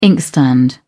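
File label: "inkstand", British English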